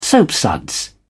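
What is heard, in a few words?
"soapsuds", British English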